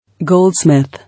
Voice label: "Goldsmith", English